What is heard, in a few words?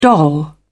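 "doll", British English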